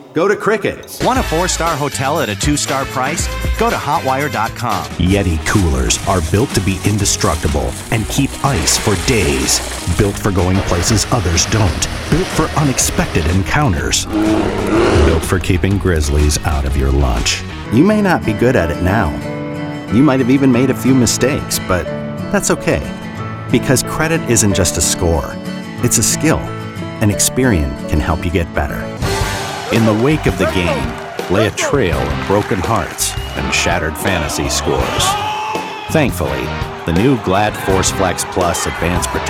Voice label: Male, commercial